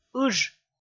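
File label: "ыж", Komi, female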